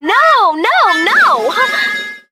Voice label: no no no girl; Voice; expletives

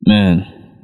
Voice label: "man", g-rated swears; Voice; expletives

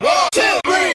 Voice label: 1 2 3 group of men; numbers and letters

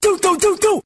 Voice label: do don't doo doo, gibbrish; Voice

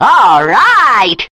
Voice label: all right cartoon

